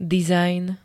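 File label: "dizajn", Slovak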